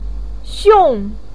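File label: "xiong4", Mandarin Chinese, pinyin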